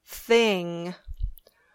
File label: "thing", English